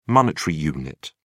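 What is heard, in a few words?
"monetary unit", English, British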